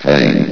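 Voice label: "pain", male synthesized voice; vox; source engine; hl1; video games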